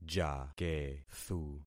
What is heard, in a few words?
"jakethu", concat syllables; Affixing experiment